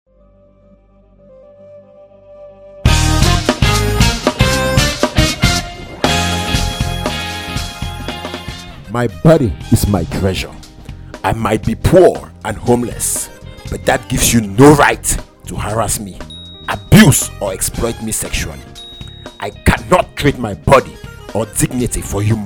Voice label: Male voice; English; Radio Spot; SOPIS